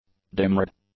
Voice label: "demured", english; adjectives